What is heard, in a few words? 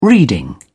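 "reading", British English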